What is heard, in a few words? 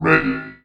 Robot Ready